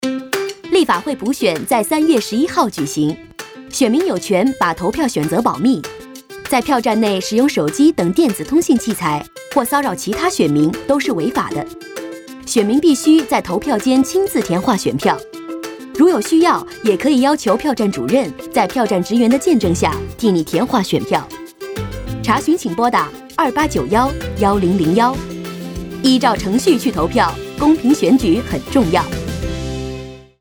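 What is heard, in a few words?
Mandarin Polling Procedures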